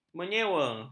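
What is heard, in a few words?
"menyewa", Malay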